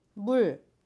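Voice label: water, Jeju-eo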